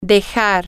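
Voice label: to Leave Something, Spanish, female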